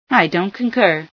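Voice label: dont concur, female scientist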